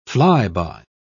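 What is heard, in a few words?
"fly-by", British English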